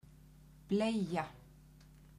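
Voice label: "bleyja", Icelandic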